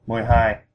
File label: "twelve" vietnamese